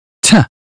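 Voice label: British phonetics sound town; 英语音标发音（剑桥版）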